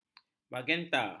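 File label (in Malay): "magenta", Malay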